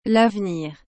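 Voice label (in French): "l’avenir", French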